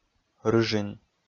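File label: "рыжин", Komi, male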